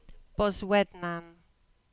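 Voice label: "Boswednan", Cornish; place-names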